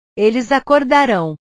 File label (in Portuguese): "eles acordarao", portugues